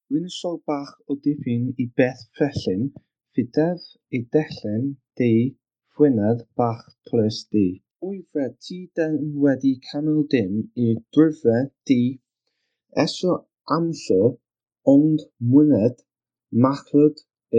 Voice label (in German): Walisisch Llanfyllin